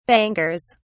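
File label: "bangers", British English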